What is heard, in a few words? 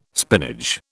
"spinach", British